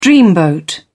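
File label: "dreamboat", British English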